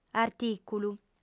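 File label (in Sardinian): "artìculu", female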